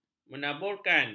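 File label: "menaburkan", Malay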